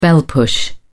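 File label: "bell push", British English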